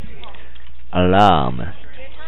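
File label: "alarm", JPN, male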